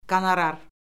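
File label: "qonorar", Azerbaijani; Orfoqrafiya Lüğəti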